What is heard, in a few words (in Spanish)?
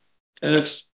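Voice Acting, Spanish, male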